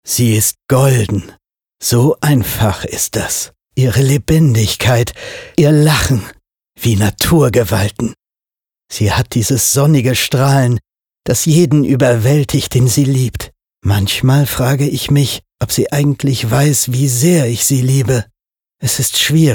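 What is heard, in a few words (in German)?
werbung, deutsch männer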